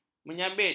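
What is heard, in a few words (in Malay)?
"menyabit", Malay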